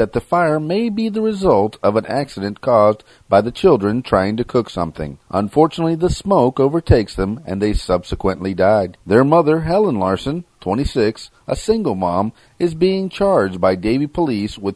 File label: News Report